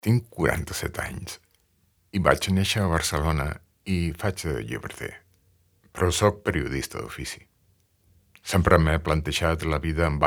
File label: male spanish castilian catalan bookseller interview